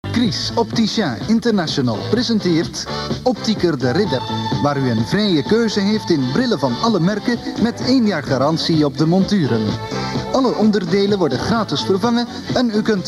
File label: commercial